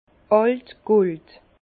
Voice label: "altgold", Bas Rhin; vin; vieil or

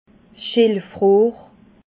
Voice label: "schilfrohr", alsace

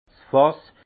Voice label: "fass", alsace; vin; tonneau

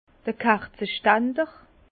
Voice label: "kerzestander", Bas Rhin; vin; bougeoir rats de cave

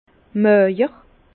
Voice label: "majer", Bas Rhin; vin; maigre

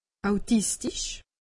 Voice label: "autistich", alsace; medecine

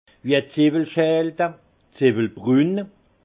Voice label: "zewwelschalde", alsace; vin; pelure d’ognon

